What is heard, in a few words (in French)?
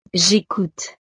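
"j ecoute", French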